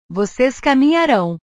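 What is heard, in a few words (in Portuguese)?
"vocês caminharão", Portuguese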